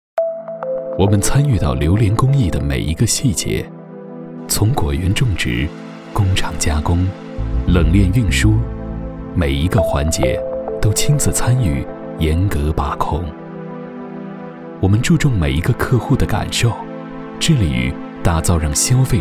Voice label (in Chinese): 成熟 大气 科技感 品质 广告 榴莲